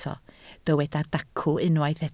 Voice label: "dacw", Welsh